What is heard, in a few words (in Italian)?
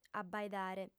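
"abbaidare", lingua sarda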